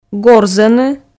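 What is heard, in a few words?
"горзӧны", Komi, female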